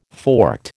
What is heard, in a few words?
"forked", American